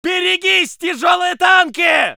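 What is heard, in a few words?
voice soviet infantry; Red Orchestra 41-45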